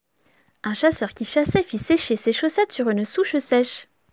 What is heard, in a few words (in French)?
Virelangue